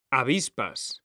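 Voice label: "avispas", Spanish, male; mekanta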